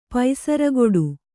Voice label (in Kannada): "ಪೈಸರಗೊಡು", Kannada